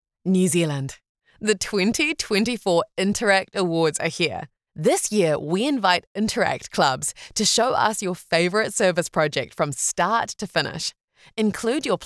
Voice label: english new zealand announcements; voice actor